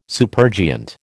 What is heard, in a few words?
"supergiant", American